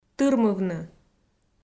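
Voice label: "тырмывны", Komi, female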